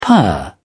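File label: "purr", British English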